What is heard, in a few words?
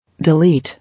"Delete", English